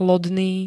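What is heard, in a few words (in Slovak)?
"lodný", Slovak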